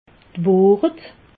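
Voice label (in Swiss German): "wohret", Alsatian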